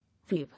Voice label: "Weave", English